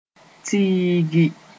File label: "tiigi", Moore; enclose space